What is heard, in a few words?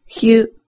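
"hyu", Japanese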